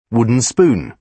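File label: "wooden spoon", British English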